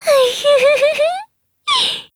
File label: MOOD, JP